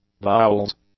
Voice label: "bowels", English; nouns